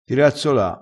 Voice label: "dirazzola", crespinese

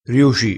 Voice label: "riuci", crespinese

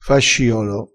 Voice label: "fasciolo", crespinese

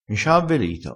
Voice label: "avveli", crespinese

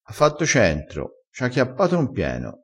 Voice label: "chiappa", crespinese

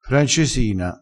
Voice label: "francesina", crespinese

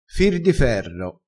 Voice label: "fir di ferro", crespinese

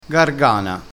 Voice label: "gargana", dialettale crespinese

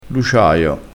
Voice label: "luciaio", crespinese, vocabolario dialettale

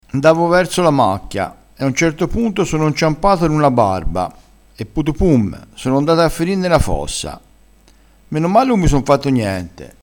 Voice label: "putupum", crespinese

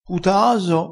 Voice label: "putaaso", vocabolario dialettale

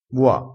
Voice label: "bua", vocabolario dialettale crespinese

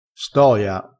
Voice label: "stoia", crespinese

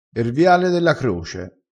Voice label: "viale", dialettale, crespinese

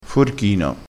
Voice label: "forchino", crespinese

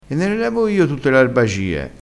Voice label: "arbagie", crespinese

